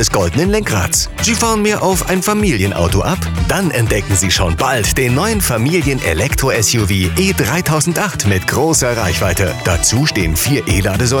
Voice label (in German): Funkspot Autohaus; Schwitalla Marburg